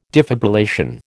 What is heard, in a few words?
"defibrillation", American